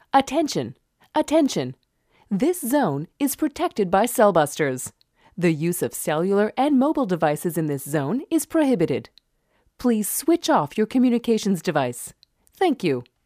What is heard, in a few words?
Female; CellBusters